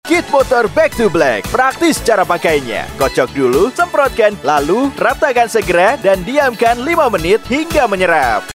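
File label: Bahasa Indonesia Digital Ads; Kit Motor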